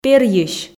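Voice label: "перйысь", Komi, female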